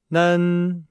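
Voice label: "nen", Chinese; Nihao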